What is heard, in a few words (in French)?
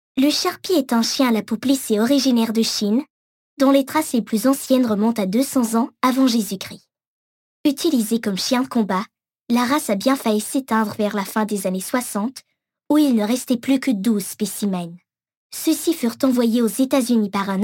narration fr